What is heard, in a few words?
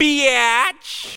"bitch", expletives